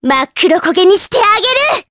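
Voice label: Vox Skill, Japanese; kingsraid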